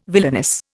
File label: "villainous", Indian